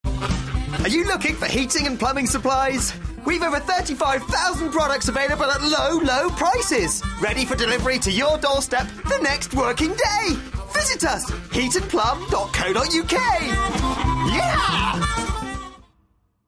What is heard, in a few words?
mad quirky squeak, voiceover